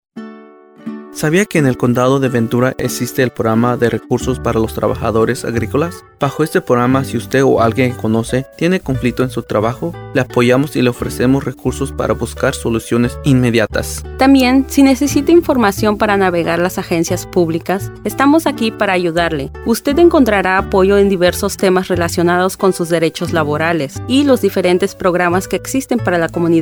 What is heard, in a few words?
Spanish; FRP; MICOP